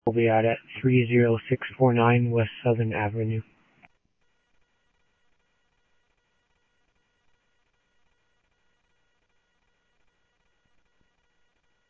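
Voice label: Sheriff West County, scanner audio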